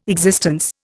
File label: "existence", Indian